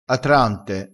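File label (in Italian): "atrante", vocabolario dialettale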